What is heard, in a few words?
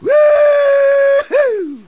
engineer cheers